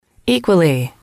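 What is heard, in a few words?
equally Likewise, english, female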